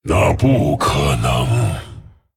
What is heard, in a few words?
Male Dragon; 普通错误 Error:Generic; 那不可能。; 风暴巨龙迦拉克隆（英雄）; That is impossible.; zh